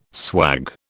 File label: "swag", British